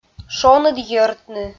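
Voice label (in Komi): "шоныд йӧртны", Komi, female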